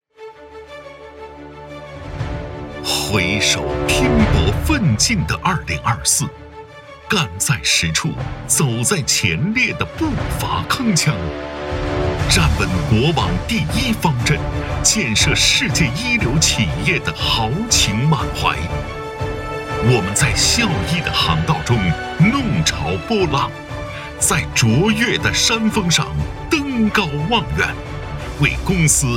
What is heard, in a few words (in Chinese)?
男 宣传片; 凌云踏海启新程